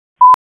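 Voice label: Police Southwest District Dispatch, p25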